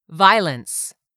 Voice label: "VIOLENCE", English; 3000 words